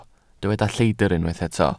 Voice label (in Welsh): "lleidr" Welsh